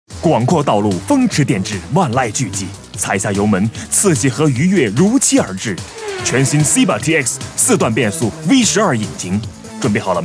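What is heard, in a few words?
Mandarin, TV commercial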